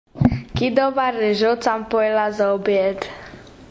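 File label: "rizot", Croatian dialect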